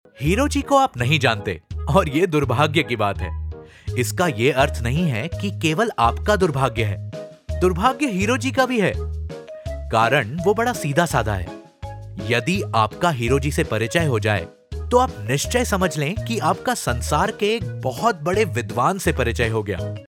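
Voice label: Hindi, male, narration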